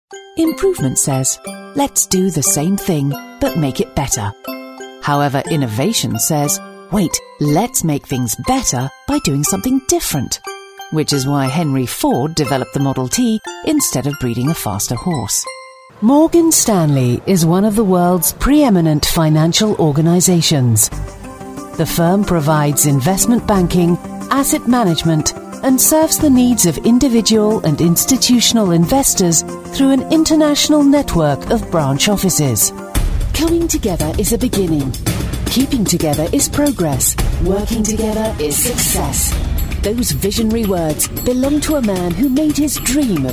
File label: Corp Montage